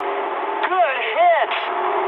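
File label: English bomb success mood high; War Thunder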